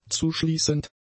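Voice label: "zuschließend", German; adjectives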